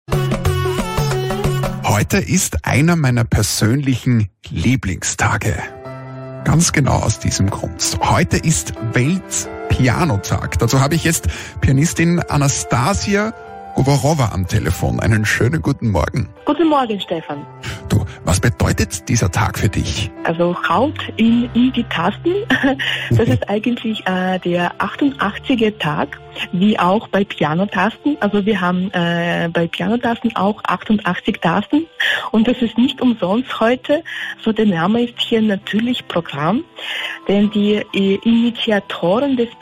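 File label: Radio Interview; World Piano Day; Austria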